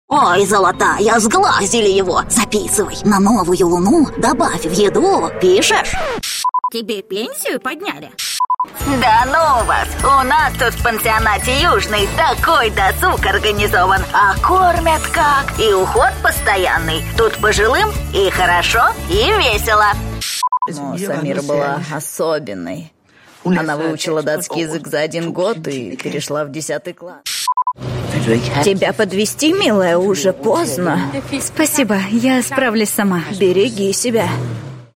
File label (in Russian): Babushka